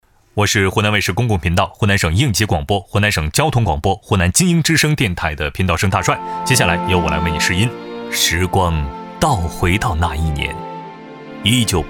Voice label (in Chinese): 旁白解说; 重庆航天职业技术学院